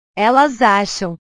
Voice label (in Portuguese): "elas acham", portugues; verbos